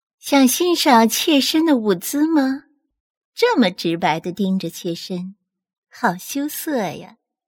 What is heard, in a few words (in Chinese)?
魅惑